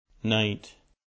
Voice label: "night", Standard Canadian